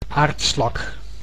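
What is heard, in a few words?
"aardslak", Dutch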